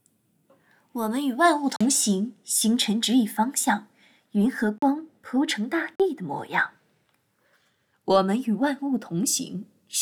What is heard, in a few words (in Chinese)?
配音